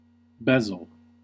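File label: "bezel", American English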